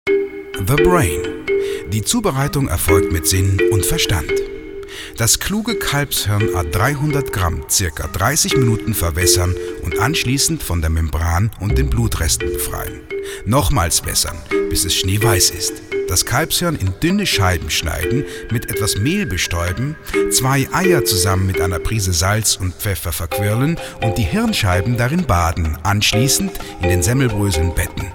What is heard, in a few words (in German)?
OFF CH DE, Kommentar Hochdeutsch CH